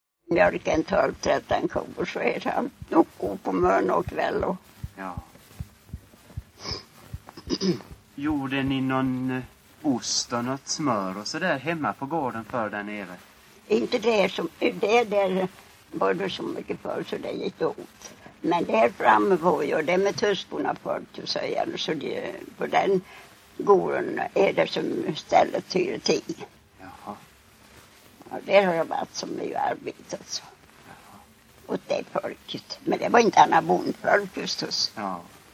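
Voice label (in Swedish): Vireda Småland, dialekter; Folkminnen; Svenska sagor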